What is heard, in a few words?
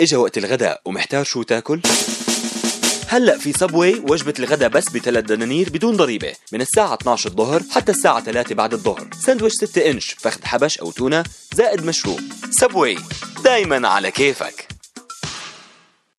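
Arabic subway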